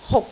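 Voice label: "huk6", Cantonese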